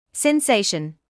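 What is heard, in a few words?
"sensation", British English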